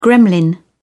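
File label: "gremlin", British English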